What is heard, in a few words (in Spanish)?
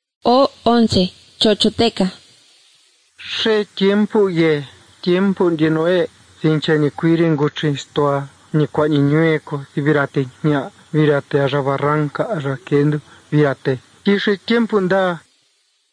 spanish mexico